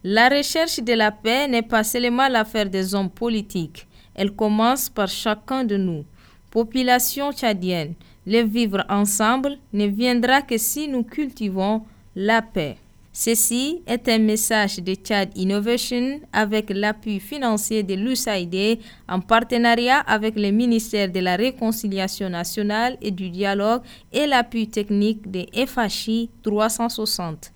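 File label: French Message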